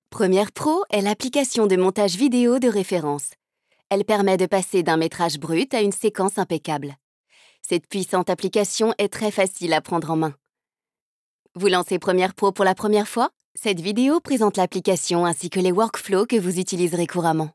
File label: female french tutorial; formation; adobe; Devine Voices